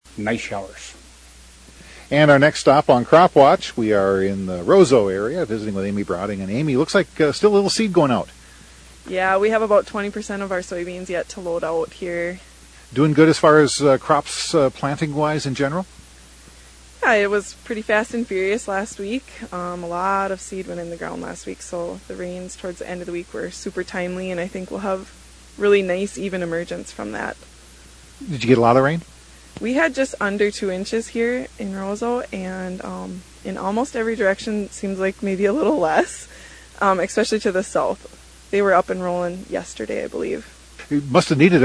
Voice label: air check; Pioneer